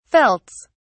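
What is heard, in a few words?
"felts", British English, dictionary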